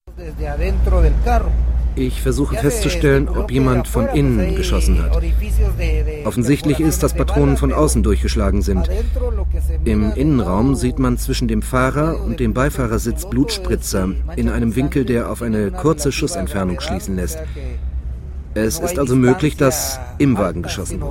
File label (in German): Voiceover; SWR